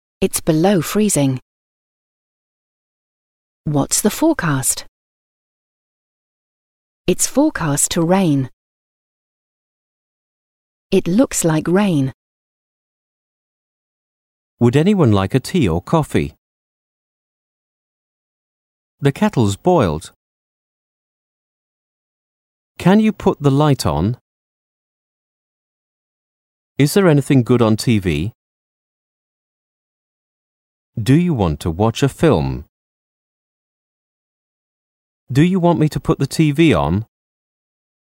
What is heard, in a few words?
English Sentences